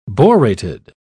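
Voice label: "borated", British English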